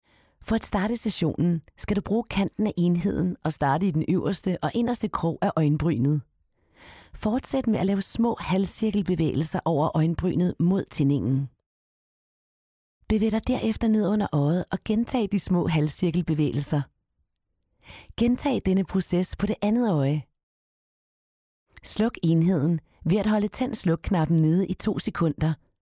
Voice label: elearn

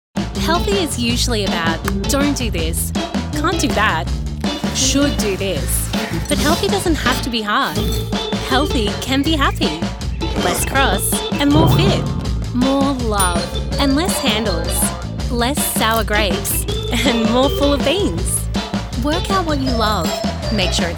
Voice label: Voiceover

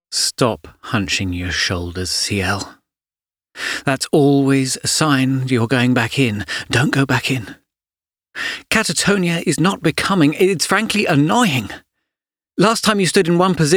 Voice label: drama

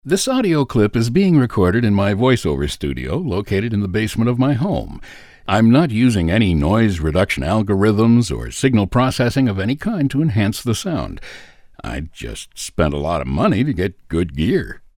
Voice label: Studio Quality

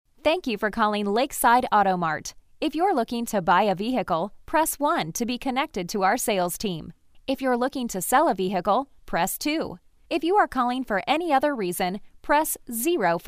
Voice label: On Hold Messaging

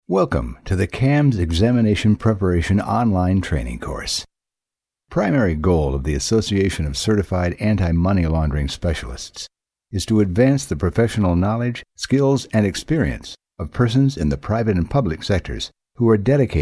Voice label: E-LEARNING

